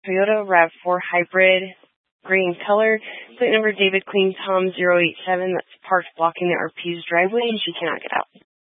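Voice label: Incident Tactical, scanner audio recording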